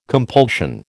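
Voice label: "compulsion", American English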